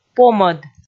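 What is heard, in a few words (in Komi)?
"помӧд", Komi, female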